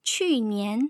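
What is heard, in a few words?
"qunian", Mandarin Chinese tone pair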